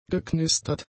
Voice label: "geknistert", German; adjectives

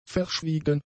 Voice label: "verschwiegen", German; adjectives

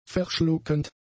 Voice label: "verschluckend", German; adjectives

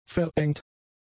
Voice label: "verengt", German; adjectives

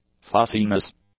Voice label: "fussiness", English

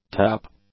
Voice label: "tap", english; nouns

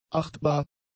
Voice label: "achtbar", german; adjectives

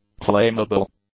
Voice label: "claimable", English; adjectives

